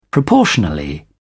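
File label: "proportionally", British English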